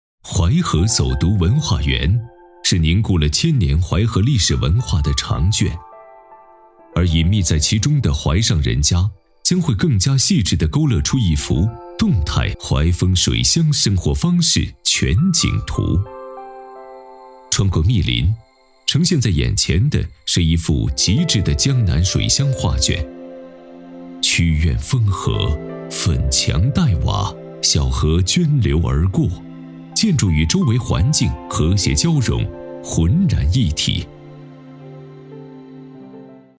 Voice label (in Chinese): 男 党政专题 悠扬韵味 景区导览; 淮河走读文化园